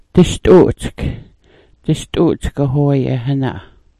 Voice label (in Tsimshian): "dist'u'utsk", Sm'algyax